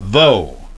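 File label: "though", English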